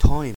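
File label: "time", Australian English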